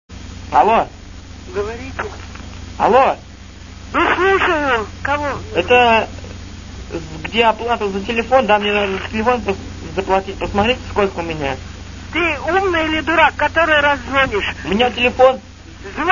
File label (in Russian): Бабка, Звонок на АТС